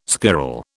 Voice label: "squirrel", British